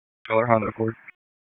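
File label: Dispatch 1, P25; wake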